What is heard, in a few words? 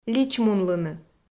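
"личмунлыны", Komi, female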